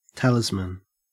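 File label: "talisman", Australian English; pronunciation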